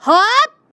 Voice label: battle f